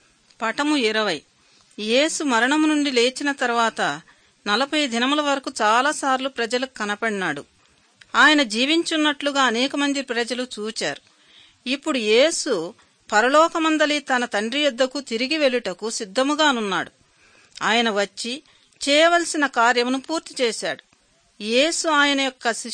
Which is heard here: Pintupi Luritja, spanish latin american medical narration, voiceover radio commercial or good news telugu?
good news telugu